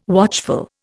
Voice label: "watchful", Indian